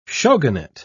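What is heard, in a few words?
"shogunate", British English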